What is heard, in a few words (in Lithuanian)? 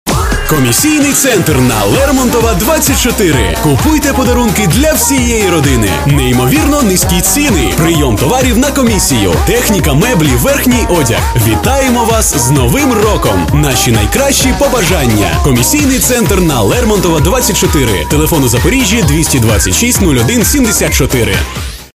Ukrainieciu balsas, vyras